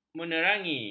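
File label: "menerangi", Malay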